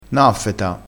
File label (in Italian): "naffeta", crespinese